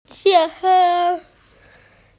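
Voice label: "baby", Mandarin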